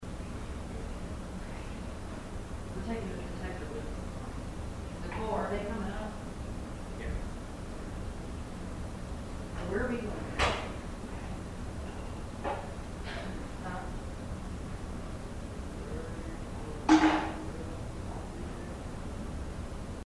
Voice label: Theatre Balcony, Female whisper

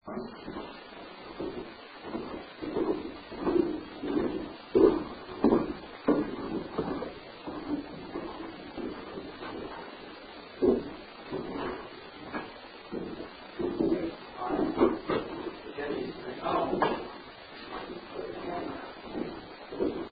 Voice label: Basement Unexplained WHISPER; Case 023 Major Graham Mansion

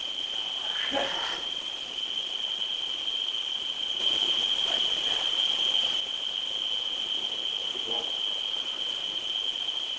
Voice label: Home Unexplained whisper What you doing AMP

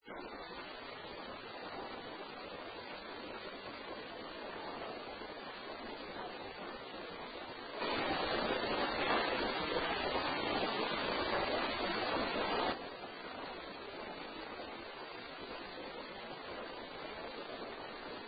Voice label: Whisper Female voice Help me; Ballard Building

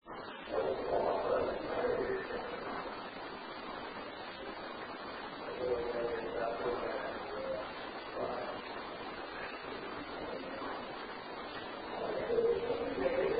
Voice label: Whisper Hey; Case 020 Ballard Building

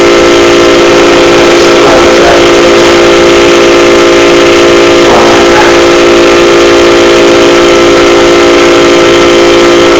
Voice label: Basement Unexplained Inaudible whisper Amplified

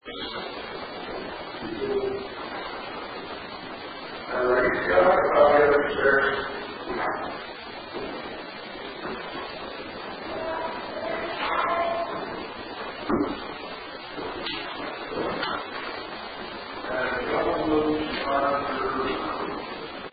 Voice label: Unexplaind INAUDIBLE VOIC; Case 023 Major Graham Mansion; Master Bedroom

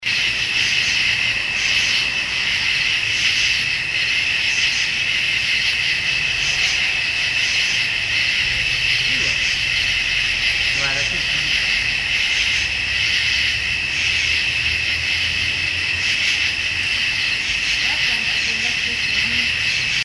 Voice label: Cemetery male voice; Hebrew-Bryant Cemetery; Sony

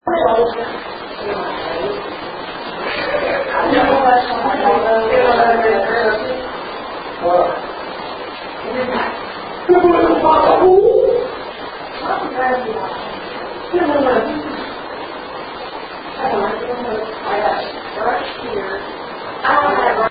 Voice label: Unexplained voice unaudiable; Case 020 Ballard Building

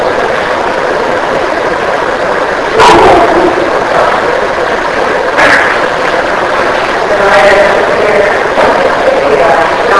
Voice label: Kids Room Unexplained whisper (Mary); Truner Home Bluefield WV; 2nd Floor

